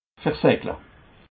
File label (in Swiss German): "verseckle", alsace